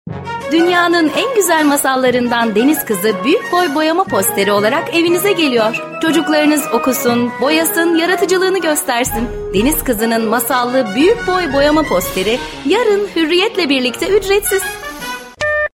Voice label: reklam neseli dinamik enerjik; hurriyet

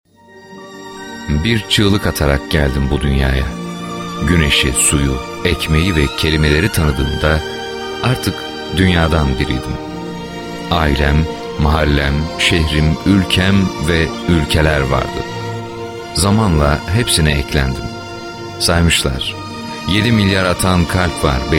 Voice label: belgesel

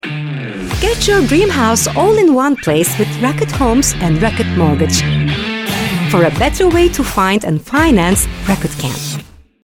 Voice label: reklam enerjik dinamik neseli; rocket

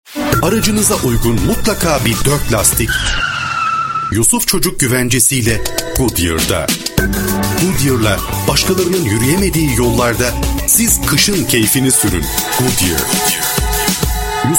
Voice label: reklam kurumsal; goodyear

